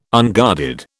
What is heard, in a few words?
"unguarded", British